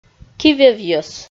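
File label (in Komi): "кивевйӧс", Komi, female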